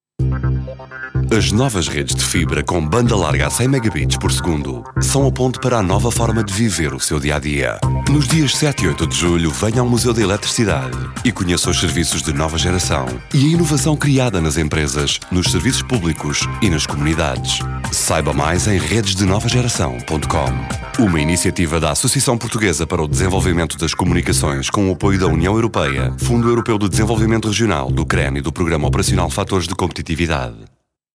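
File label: Portuguese commercial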